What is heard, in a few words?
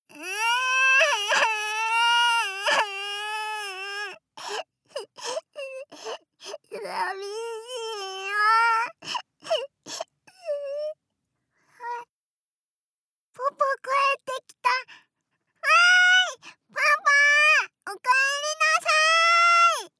Little girl